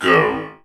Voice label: Robot Go

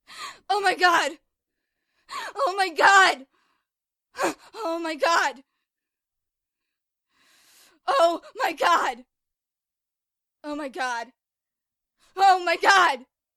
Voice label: Scared

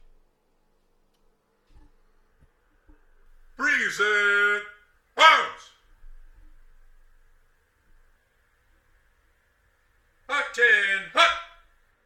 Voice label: Drill sarge Present arms Ten hut

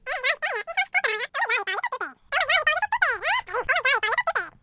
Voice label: Fast Forward Conversation

